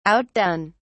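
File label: "outdone", British English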